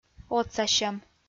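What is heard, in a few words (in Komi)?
"отсасьӧм", Komi, female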